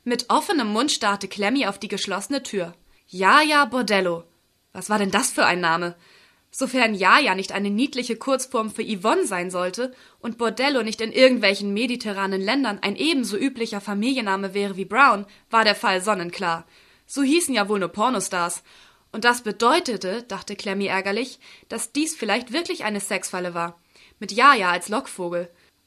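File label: German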